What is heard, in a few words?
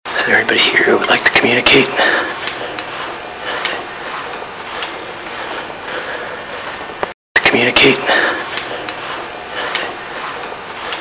far talking; Carlson Hall